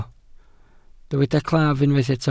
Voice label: "claf", welsh